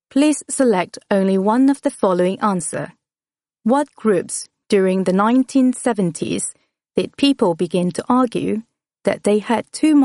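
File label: Malay English, female, eLearning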